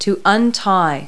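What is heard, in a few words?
"untie", verb; Multi-Lingual Talking Picture Dictionary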